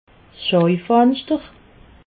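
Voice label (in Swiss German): "schoifanschter", Alsatian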